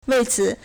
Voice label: "wei4ci3", Chinese